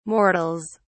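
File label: "mortals", British English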